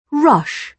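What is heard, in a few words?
"rush", British English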